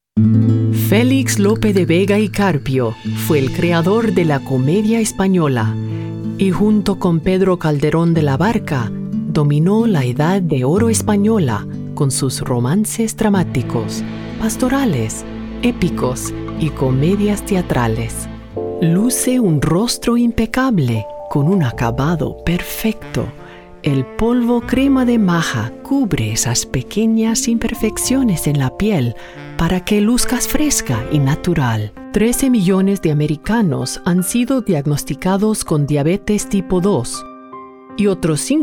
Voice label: Spanish